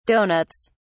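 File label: "donuts", British English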